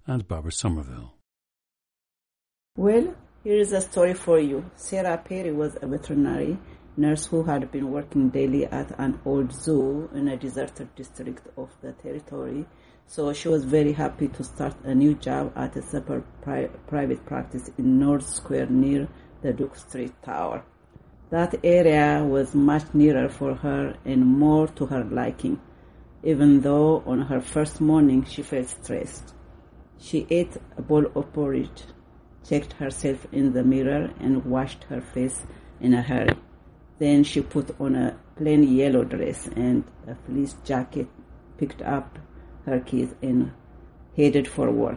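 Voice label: ethiopia, International Dialects of English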